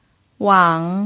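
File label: "wang", third tone, Mandarin Chinese pinyin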